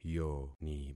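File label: "yoni", concatenated syllables; Affixing experiment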